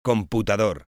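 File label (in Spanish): "computador", Spanish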